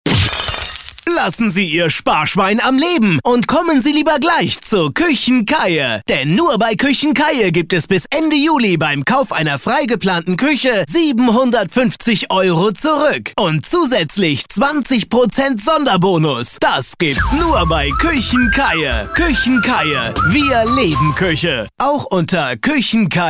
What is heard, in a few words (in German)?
Funkspot; Küchen Keie; Processed